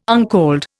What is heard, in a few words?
"uncalled", Indian